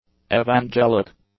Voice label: "evangelic", English; adjectives